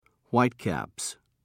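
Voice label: "whitecaps", British English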